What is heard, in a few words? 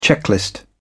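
"checklist", British English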